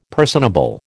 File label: "personable", American